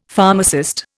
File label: "pharmacist", Indian; Dictionary